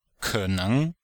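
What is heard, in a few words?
"ke3neng2", chinese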